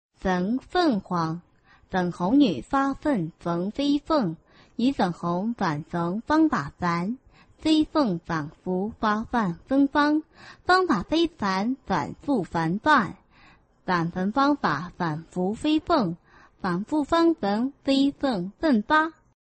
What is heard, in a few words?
chinese tongue twister